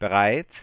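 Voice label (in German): German ready to display; Samsung SGH-i310; Voice Sounds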